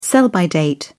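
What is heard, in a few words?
"sell-by date", British English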